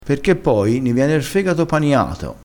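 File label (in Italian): "paniato", dialettale crespinese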